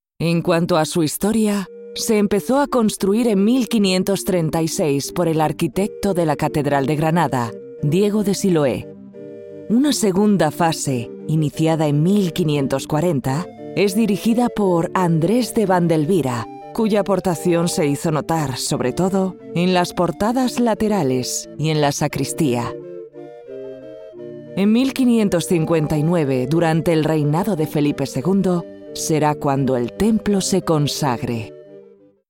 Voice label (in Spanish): female audioguía castellano